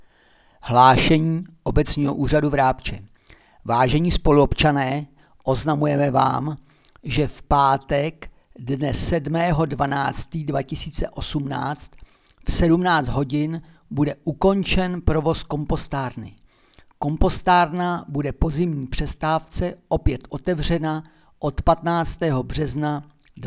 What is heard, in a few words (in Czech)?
rozhlas ukonceni provozu kompostarny